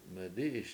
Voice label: "medI7iS", washo; to float